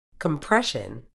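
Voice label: "compression", British English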